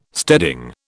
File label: "steading", British